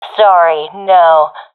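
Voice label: negative, professional female; Counter Strike Model Pack; CS:GO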